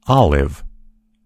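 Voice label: "olive", American English; gcompris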